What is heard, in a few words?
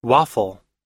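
"waffle", American English; waffle /wɑ́:fl; ワッフル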